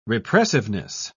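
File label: "repressiveness", British English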